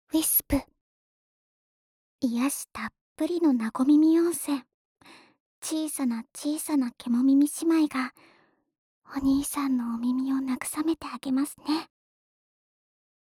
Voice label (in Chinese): 標題朗讀; ハイレゾ×KU100バイノーラル; 幻想天国・和耳旅館; 小さな妖狐姉妹による、甘やかしセラピー